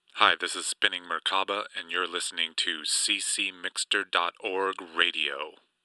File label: Radio Promo; ccMixter